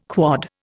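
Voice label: "quod", Indian English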